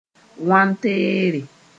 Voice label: "wantɛɛre", Mooré